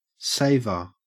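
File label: "saver", Australian English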